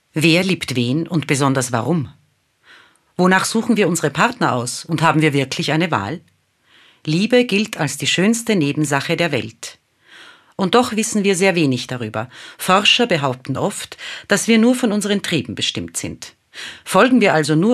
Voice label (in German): moderation